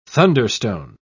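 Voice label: "thunderstone", English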